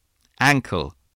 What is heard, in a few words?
"ankle", spelling